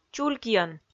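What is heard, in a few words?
"чулькйӧн", Komi, female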